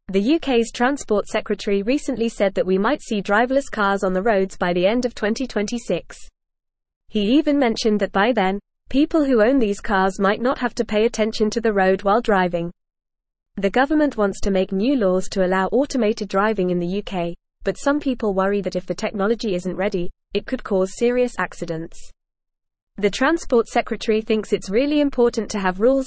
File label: English Upper Intermediate FAST Reading; English Newsroom; UK Driverless Cars Could Hit Roads by 2026